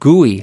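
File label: "gooey", US English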